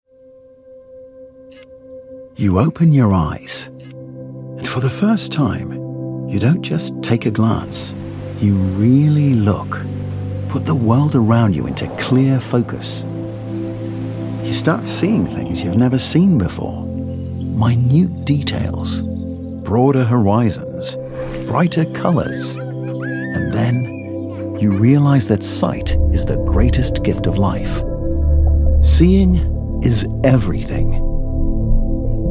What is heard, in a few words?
Corporate Movie; Hoya